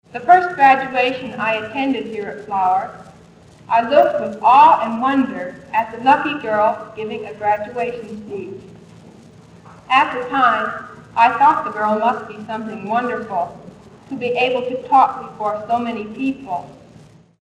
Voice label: Restored Graduation Speech